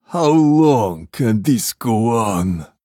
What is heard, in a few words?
Male Draenei Play